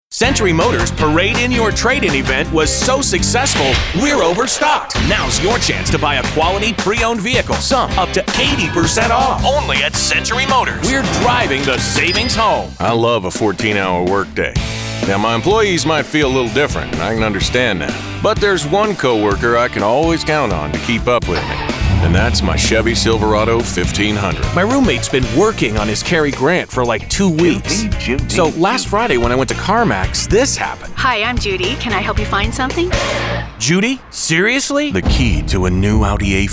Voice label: Auto Dealer Male, commercial voiceover; TV and Radio

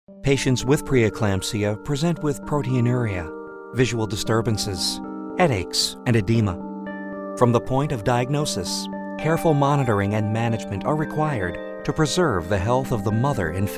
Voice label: Medical, commercial voiceover

